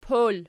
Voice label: "pol", Archi

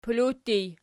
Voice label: "pelaw", Archi

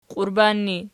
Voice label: "qiurban", Archi

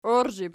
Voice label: "oirzhib", Archi

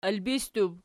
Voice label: "ilbisttub", Archi

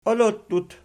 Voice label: "olottut", Archi

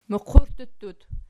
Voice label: "muqurttut", Archi

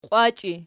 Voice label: "qiwachii", Archi

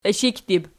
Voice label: "ishikdib", Archi

